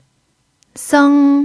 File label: "seng1", chinese pinyin